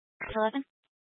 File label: Fire Department Dispatch, scanner